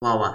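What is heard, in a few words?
"wawa", toki pona; lipu linku; kalama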